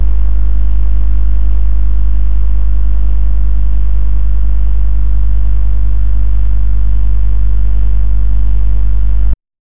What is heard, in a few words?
a british greeting